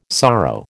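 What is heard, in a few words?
"sorrow", American English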